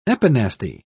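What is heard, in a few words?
"epinasty", British English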